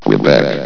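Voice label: "quebec", male, vox; valve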